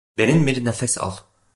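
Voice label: "derin bir nefes al", Turkish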